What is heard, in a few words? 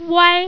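"wai", Chinese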